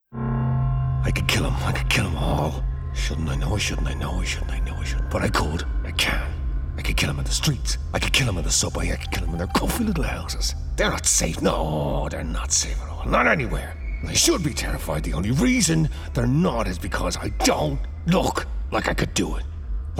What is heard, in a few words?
Gaming Psycho